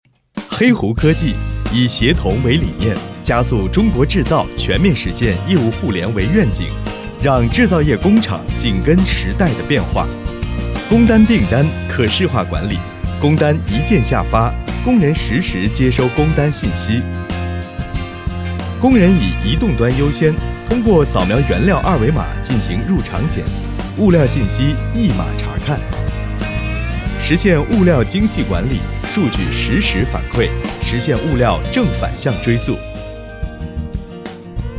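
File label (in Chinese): 专题科技轻快; 专题黑狐科技轻快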